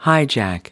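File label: "hijack", American English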